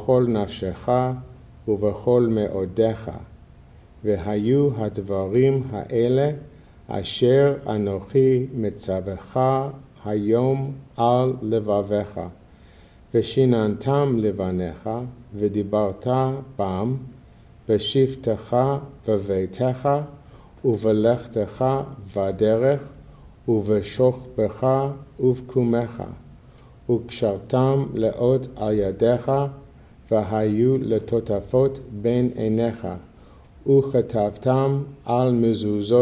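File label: Sh'ma & V'ahavta, male; Shabbat Evening Readings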